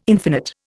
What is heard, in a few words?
"infinite", Indian